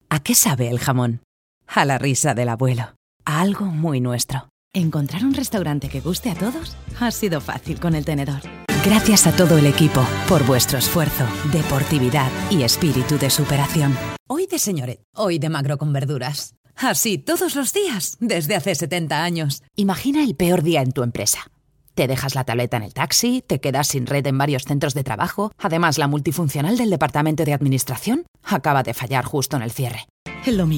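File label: Spanish European General; GoLocalise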